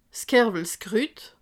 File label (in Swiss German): "kerwelskrut", alsace